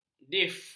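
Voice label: "dif", Malay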